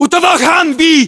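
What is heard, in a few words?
landmark near humvee, battlechatter, Russian; localized english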